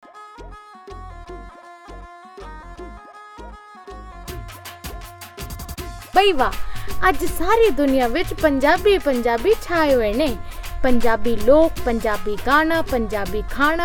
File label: Punjabi